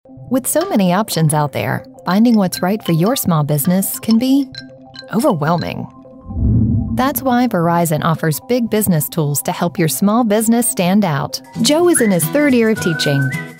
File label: 30s 40s Female Narration